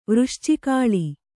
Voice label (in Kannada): "ವೃಶ್ಚಿಕಾಳಿ", Kannada